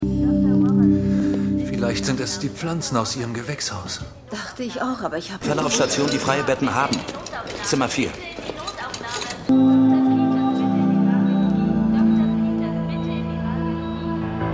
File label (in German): Krankenhaus Durchsagen; PSI Factor Es geschieht jeden Tag